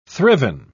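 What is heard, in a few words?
"thriven", British English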